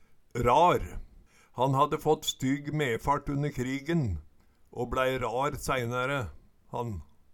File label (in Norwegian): rar, Numedalsmål